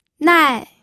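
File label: "nai", fourth tone, pinyin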